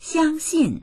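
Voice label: "xiang1xin4", Chinese; xiāngxìn; 相信; 信じる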